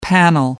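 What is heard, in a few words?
"panel", English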